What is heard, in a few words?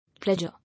"Ledger", English